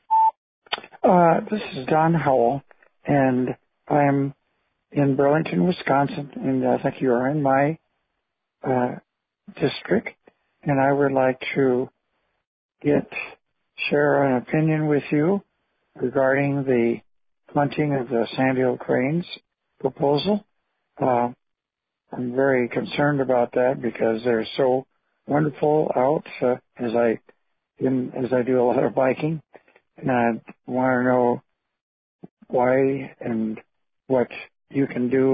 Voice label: Voice Mail